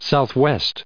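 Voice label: "southwest", US English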